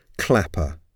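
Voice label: "clapper", English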